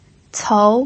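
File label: "cou" second tone, Mandarin Chinese pinyin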